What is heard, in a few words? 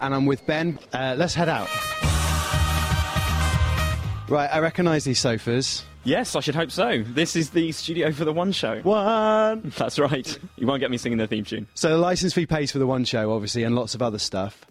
Radio